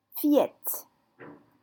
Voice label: "fillette", French

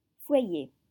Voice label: "foyer", French

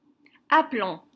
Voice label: "aplomb", French

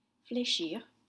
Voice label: "flechir", French; bend

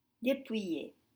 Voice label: "depouillee", French; shorn

